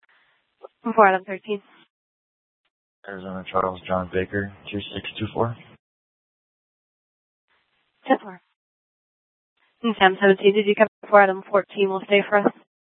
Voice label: Mesa PD Fiesta Dispatch; TOPAZ Regional Wireless Cooperative (TRWC); Mesa Simulcast

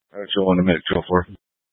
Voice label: Fire Departments Mesa Simulcast; TOPAZ

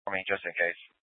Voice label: Scottsdale Police, Simulcast

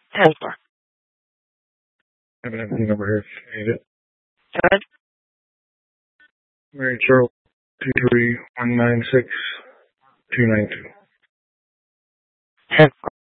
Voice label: Sheriffs Office, scanner

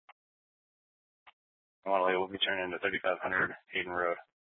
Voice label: Police South Dispatch

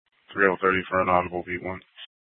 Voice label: PD Dispatch; Scottsdale; Sctdal PD Simulcast H; A01 - Sth Dispatch

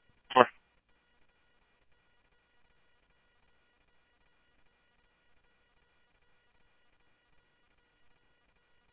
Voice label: Police, scanner

